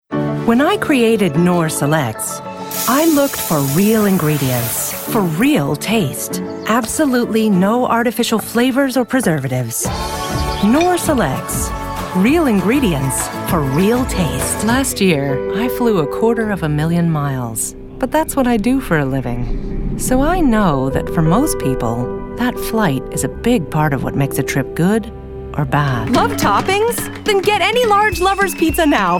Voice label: commercial